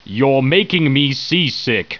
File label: annoyed; war2; humans; ships; You're making me seasick; alliance